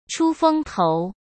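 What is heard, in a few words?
"出风头", Mandarin Chinese; hsk; chinese flashcards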